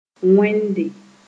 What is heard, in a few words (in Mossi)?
"wẽnde", Moore